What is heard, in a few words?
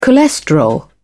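"cholesterol", British English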